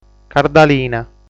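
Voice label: "Cardalina", Sardinian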